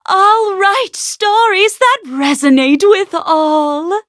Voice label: levelup, en; Cookie Run